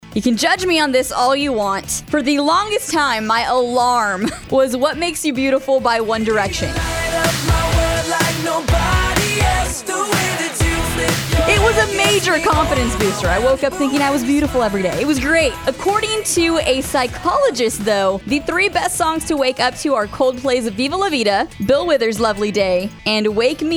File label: country aircheck